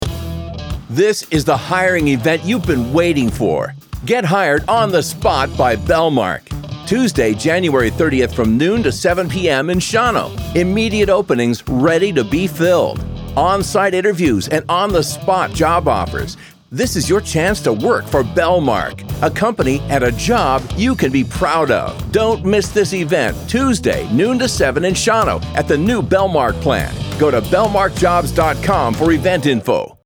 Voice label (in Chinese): 外籍英男 热情广告